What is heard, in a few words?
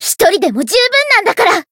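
Voice variable chant, Japanese; alma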